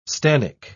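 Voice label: "stannic", British English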